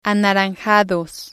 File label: "anaranjados", spanish